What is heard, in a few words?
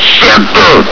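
"sector!", male; hl2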